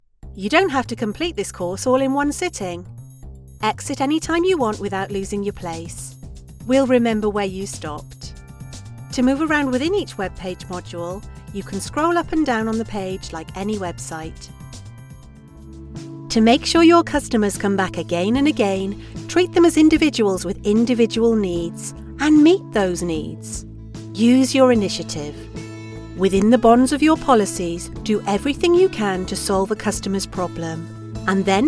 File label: english british e-learning